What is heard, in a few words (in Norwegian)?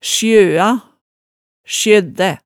"sjøa", hemsedalsmaalet